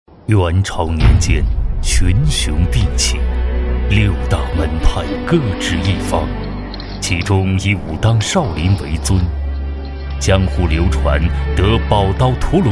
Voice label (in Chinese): 男 旁白 武侠游戏